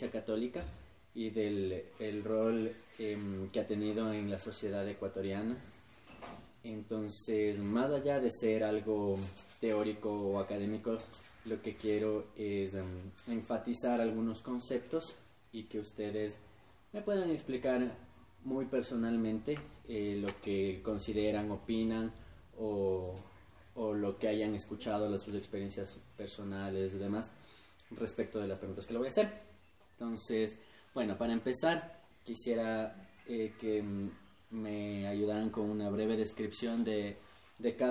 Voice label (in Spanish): Entrevista grupo focal quito; Tesis